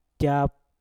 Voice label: "jap", Mpur; tinggal